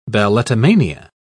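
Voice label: "balletomania", British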